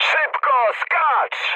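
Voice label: bailout, mood high, Polish speech; War Thunder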